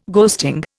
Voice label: "ghosting", Indian